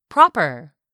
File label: "proper", English; 3000 words